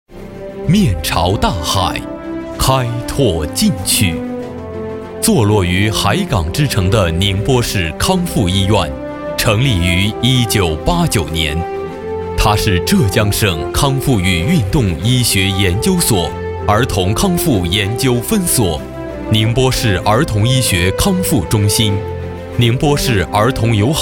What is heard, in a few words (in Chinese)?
男 专题 医院专题